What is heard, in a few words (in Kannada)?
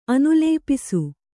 "ಅನುಲೇಪಿಸು", Kannada